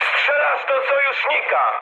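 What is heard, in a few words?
player friendly fire, mood med, Polish speech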